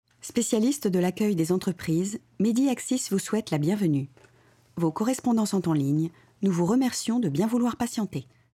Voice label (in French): Répondeur, voix